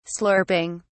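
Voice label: "slurping", English, British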